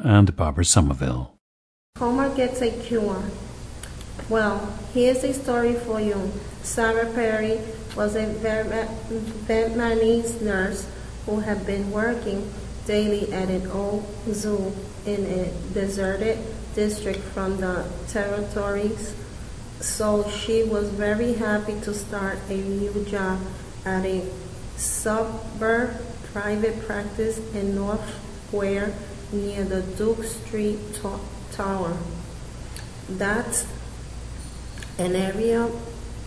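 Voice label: new york, International Dialects of English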